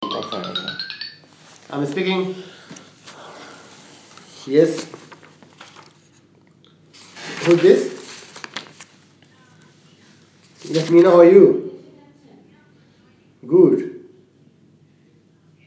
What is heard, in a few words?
recorder amer speaking